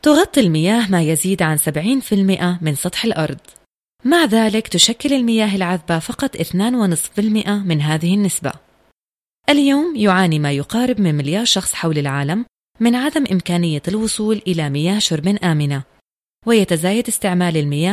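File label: Arabic, female, documentary; voice over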